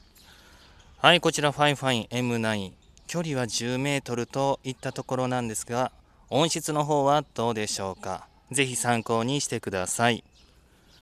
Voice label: fifine m9 microphone